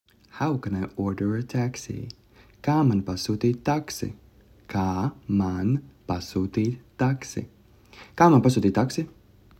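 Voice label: How can I order a taxi, Latvian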